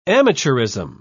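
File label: "amateurism", English, British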